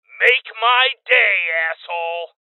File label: Voices Freeze Male; rebel cops 2019